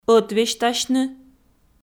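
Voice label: "ӧтвесьтасьны", Komi, female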